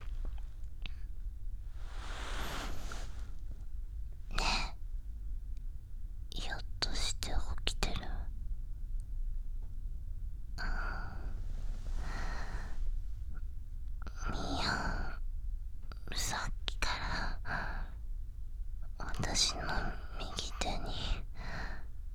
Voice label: ウィスパーボイス バイノーラル; オナサポ

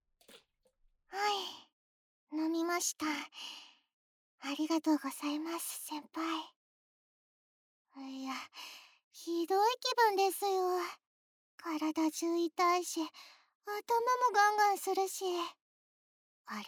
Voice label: 添い寝 左右反転, ASMR

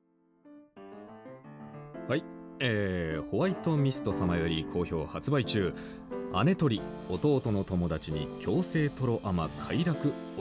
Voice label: 発売告知トーク; あねとり〜弟の友達に強制とろ甘快楽堕ち〜

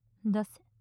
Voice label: 出せ・近・左, ASMR; マゾオスのあなたに敗北射精の快楽を叩き込む音声

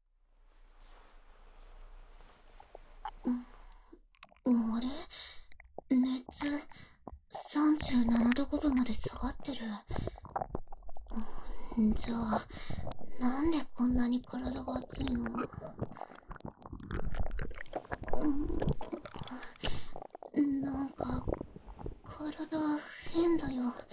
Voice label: 耳舐めされながら、媚薬で発情してオナニーする義姉にフェラ・キス・手コキされる音声; 風邪薬と間違えて媚薬錠剤を飲んだ義姉の痴女化おま◯こ〜お布団潜っておちんぽ空っぽ汗だく中出しえっち〜

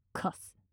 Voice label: カス・強く・近・左

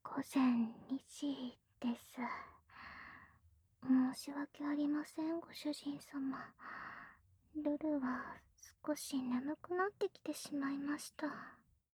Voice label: 時報ボイス 午前2時です; 好感MAX従順奴隷メイド~甘トロ媚び媚び奉仕~

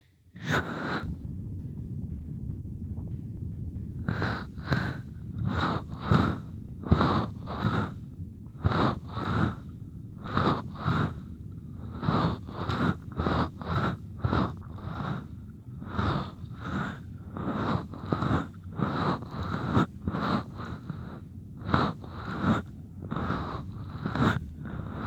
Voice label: 指ずぼMIX片耳, ASMR

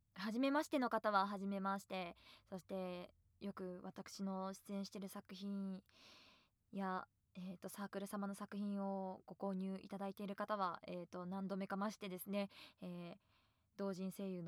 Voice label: フリートーク 台詞のみ; お姉ちゃんはお布団の中 頬ずり 甘キス 耳舐め 乳首愛撫受け 騎乗位H

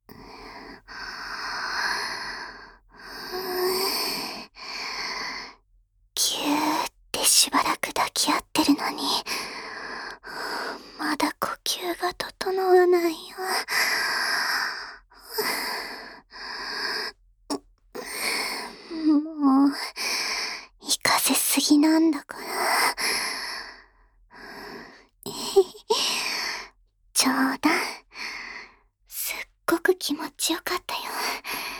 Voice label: 抱き合った状態で甘々ピロートーク

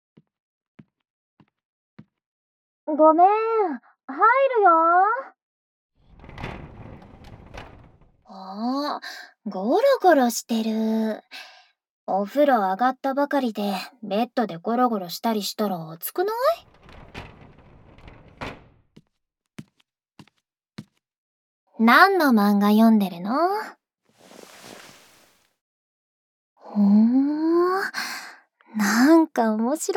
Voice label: 「耳かきしてくれるお姉ちゃん」, ASMR

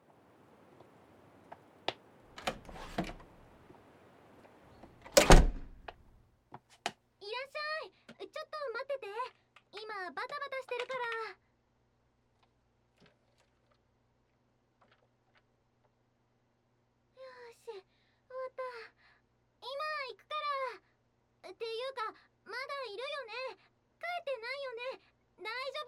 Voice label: ギャル美容院でギャルと楽しく雑談; シャンプー・散髪・マッサージ; 高級ギャル美容院の泡々特別サービス

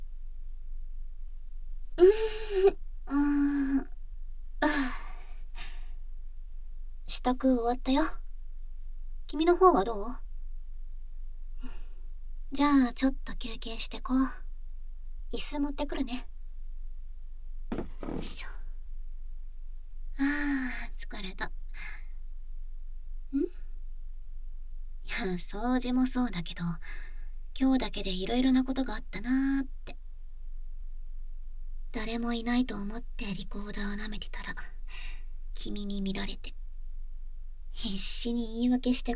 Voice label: ピロートーク【SEあり】, ASMR

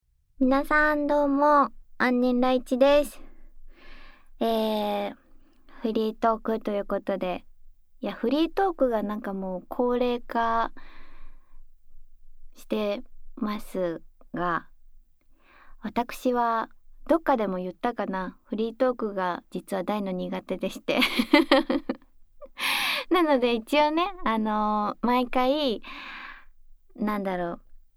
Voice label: フリートーク音声

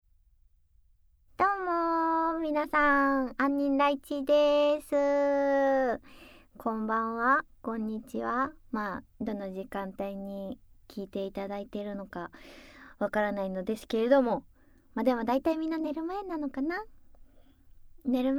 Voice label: フリートーク; メスガキ魔法少女サーリャちゃん

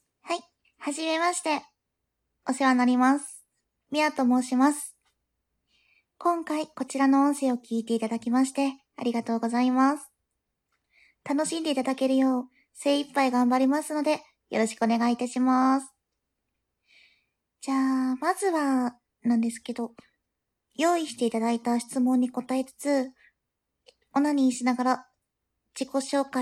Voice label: オナニーしながら自己紹介; ガチ実演; オナニー実演

